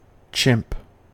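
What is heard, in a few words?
"chimp", American English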